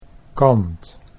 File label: "kant", Dutch